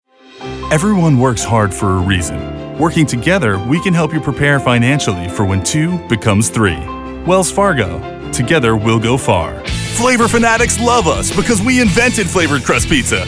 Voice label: vo commercial